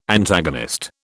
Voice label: "Antagonist", British